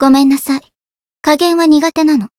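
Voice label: win, Japanese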